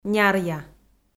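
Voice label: "няръя", Komi, female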